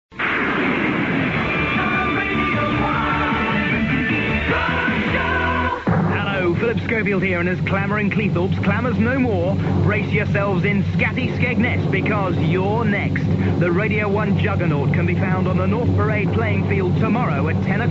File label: roadshow trailer; phil schofield